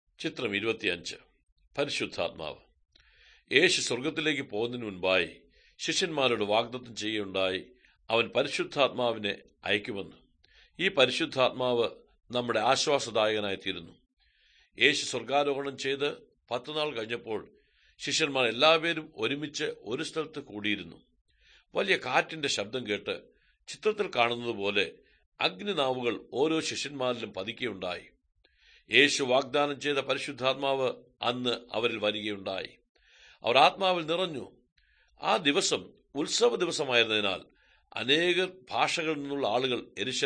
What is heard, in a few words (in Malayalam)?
good news malayalam, drama